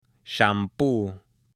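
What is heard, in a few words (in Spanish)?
"SHAMPOO", hombre; MUEBLES; AppLenguaje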